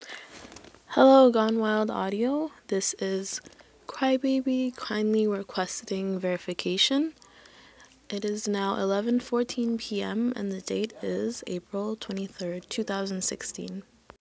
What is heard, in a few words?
f, verification